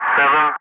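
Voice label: "7", English; Ka-50; Wingman; Digits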